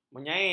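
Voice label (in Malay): "menyain", Malay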